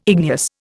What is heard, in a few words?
"igneous", Indian